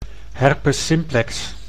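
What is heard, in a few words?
"herpes simplex", Dutch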